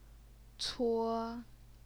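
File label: "cuo", first tone, Chinese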